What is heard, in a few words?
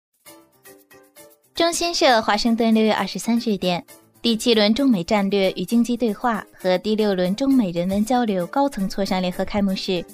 news